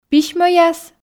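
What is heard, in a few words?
"письмӧяс" Komi, female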